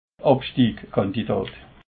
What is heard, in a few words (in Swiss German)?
"abstiegskandidat", alsace